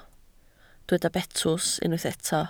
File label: "betws", Welsh